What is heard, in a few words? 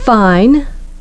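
"fine", English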